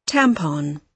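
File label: "tampon", British English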